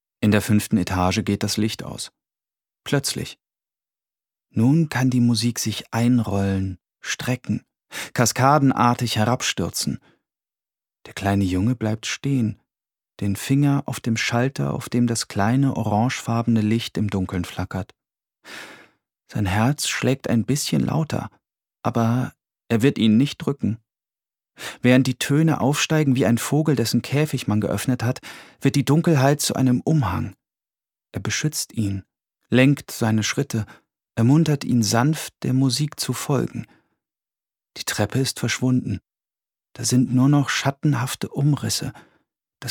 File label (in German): Hoerbuch Roman